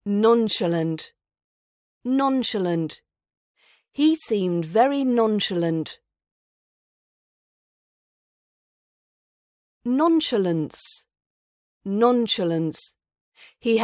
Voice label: nonchalant RP British accent pronunciation practice